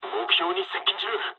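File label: near waypoint mood low, speech japanese; War Thunder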